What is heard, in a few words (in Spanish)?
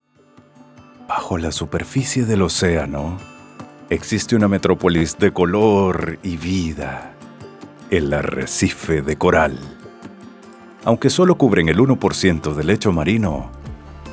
Documental; El arrecife de coral